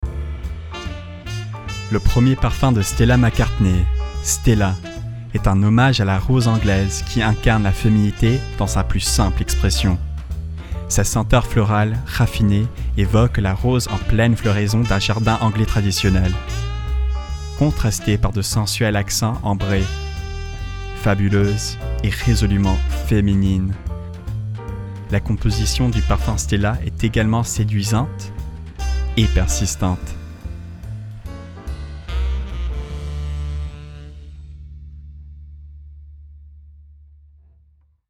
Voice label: french commercial